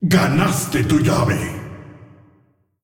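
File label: announcer female tournaments win bracket generic, es ar; clashvo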